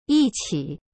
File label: "一起" yiqi, chinese